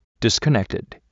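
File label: mike disconnected, male; SmartFTP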